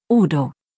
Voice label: "Udo", deutsch, jungennamen

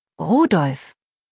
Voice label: "Rudolf", deutsch, jungennamen

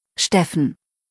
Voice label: "Steffen", deutsch, jungennamen

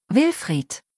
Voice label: "Wilfried", deutsch, jungennamen

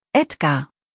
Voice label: "Edgar", deutsch jungennamen

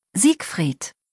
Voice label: "Siegfried", deutsch, jungennamen

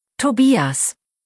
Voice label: "Tobias", deutsch, jungennamen

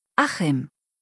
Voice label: "Achim", deutsch jungennamen

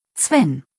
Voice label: "Sven", deutsch, jungennamen

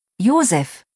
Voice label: "Josef", deutsch, jungennamen

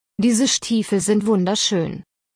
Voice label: "Stiefel", deutsch; damenbekleidung

